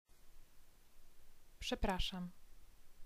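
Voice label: "przepraszam" Polish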